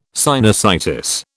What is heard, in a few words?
"sinusitis", British